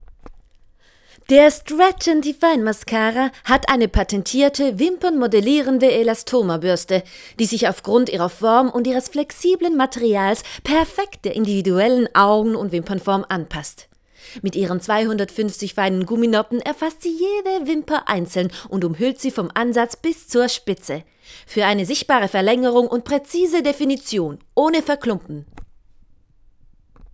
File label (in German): Werbung Glamour